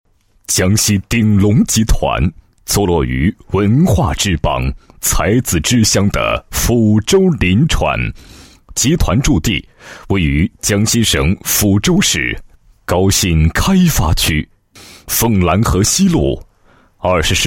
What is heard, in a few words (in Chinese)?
男 企业, 集团宣传; 江西鼎龙集团